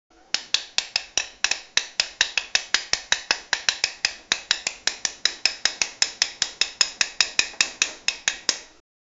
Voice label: cute anime, voice acting

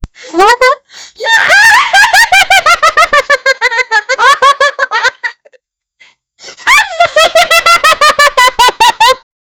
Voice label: character voices

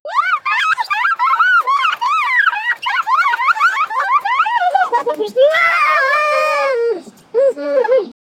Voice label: chaotic joyful cartoon, gibberish

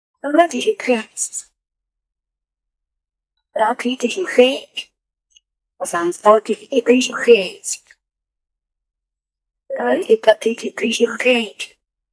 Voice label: maid, voice acting

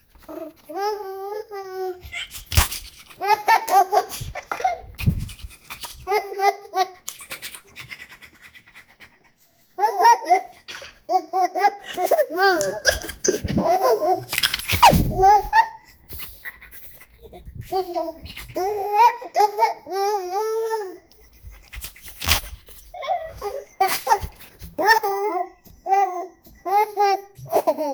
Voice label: a 18 year old young; human interactions

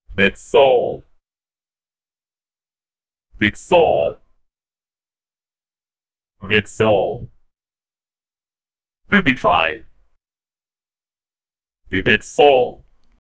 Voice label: character voice; stewie griffin

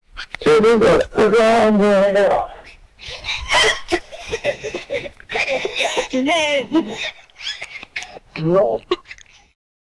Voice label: 9 year old african, father

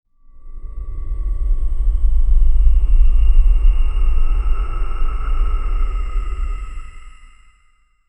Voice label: whisper

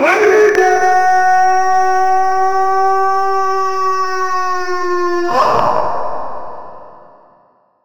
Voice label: cartoon style, voice over

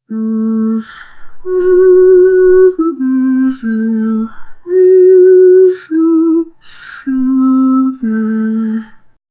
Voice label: in a smooth melodic, whisper

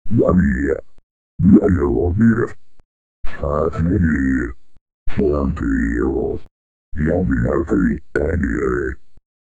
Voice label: a 8 bit so much, human voice

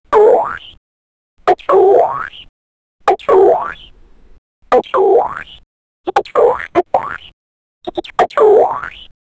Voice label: npc frog speaks like animal crossing

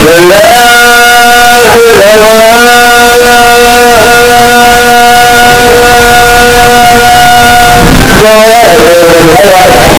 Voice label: background voice for Arabic

